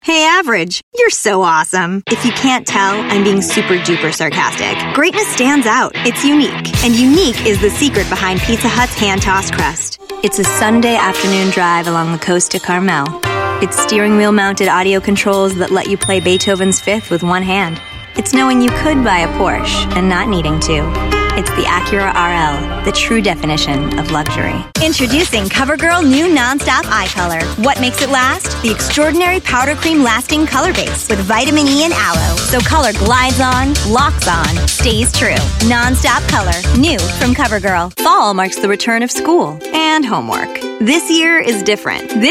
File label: US English Commercial